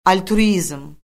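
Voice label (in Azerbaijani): "altruizm", Azerbaijani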